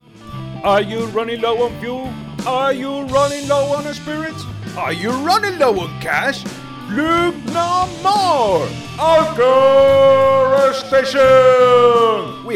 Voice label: Commercial; Mothership; ALCOR Station - Fuel & Services